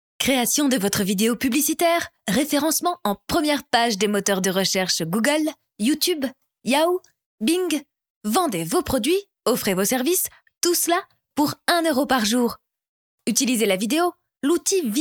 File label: FRENCH